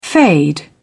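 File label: "fade away", British English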